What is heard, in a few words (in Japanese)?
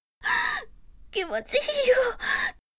エッチ中の気持ちいいよぉ; ループボイス